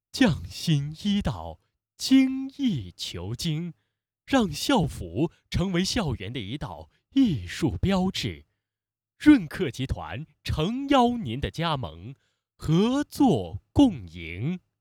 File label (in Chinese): 青春大气